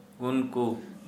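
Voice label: they, Santali, male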